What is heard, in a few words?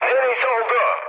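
Turkish bomb failure, mood high, speech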